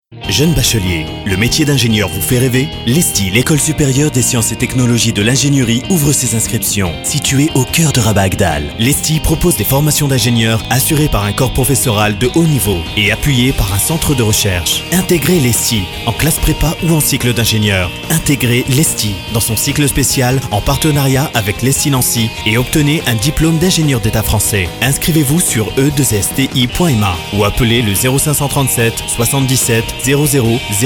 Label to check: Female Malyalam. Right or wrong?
Wrong